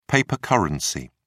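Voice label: "paper currency", British English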